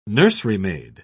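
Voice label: "nurserymaid", English, British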